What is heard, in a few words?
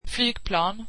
"airplane", swedish